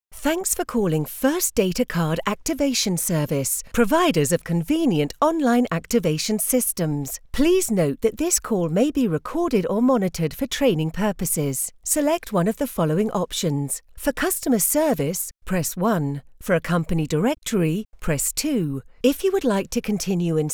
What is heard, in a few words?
phone sample